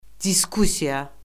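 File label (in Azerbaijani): "diskussiya", Azerbaijani; Orfoqrafiya Lüğəti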